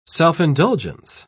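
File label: "self-indulgence", British English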